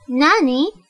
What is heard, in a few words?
"What", Japanese